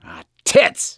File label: reaction negative, voice; gambler